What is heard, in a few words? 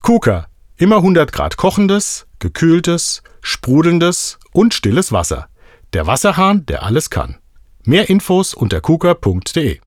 male german comercial